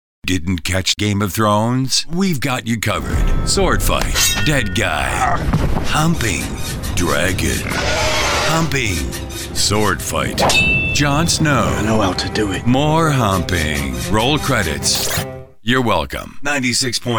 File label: radio men rock, radio imaging